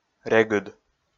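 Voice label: "регыд", Komi, male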